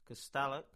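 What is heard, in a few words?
"Castallack" English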